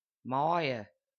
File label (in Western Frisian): "maaie" may mei